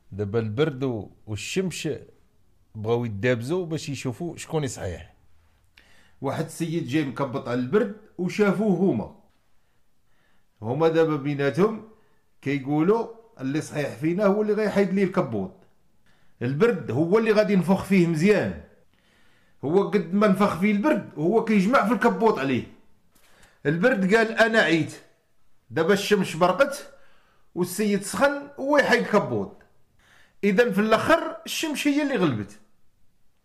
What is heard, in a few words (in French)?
arabe marocain Oulad Ziane; مداكرة